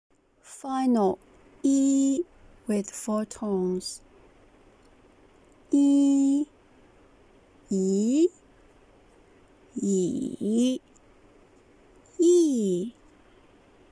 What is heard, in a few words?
"i" with 4 tones, Mandarin